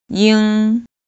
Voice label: "ying1", pinyin